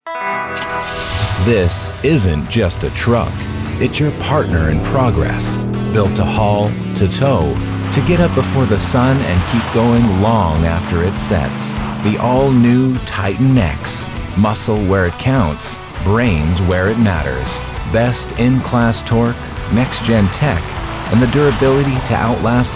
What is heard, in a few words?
Commercial; Titan-X